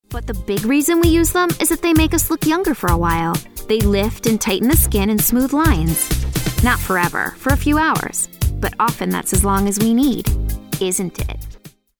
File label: Ditzy